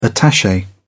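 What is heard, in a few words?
"attache", English, British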